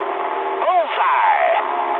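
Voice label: English bomb success mood high; speech; War Thunder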